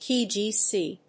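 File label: "kHz", English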